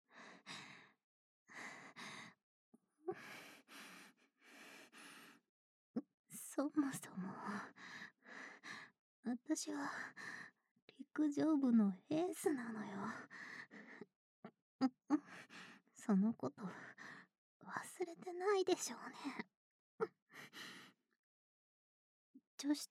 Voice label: LOVE Whisper せんぱい

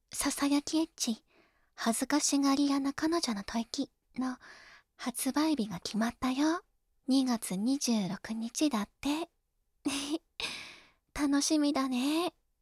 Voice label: 発売告知ボイス

